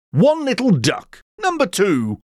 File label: call one little duck 2, Bingo Calls; Bouncy Bingo Balls; Eyecon